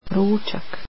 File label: "lunch" croatian